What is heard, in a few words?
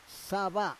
"sava", Roon